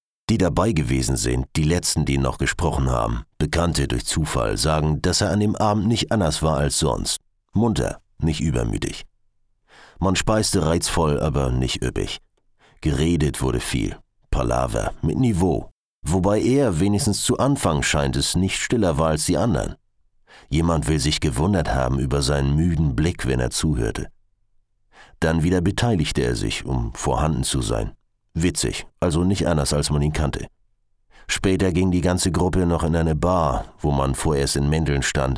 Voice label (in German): norddeutsch